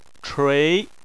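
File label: "chui" second tone, Chinese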